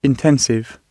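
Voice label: "intensive", English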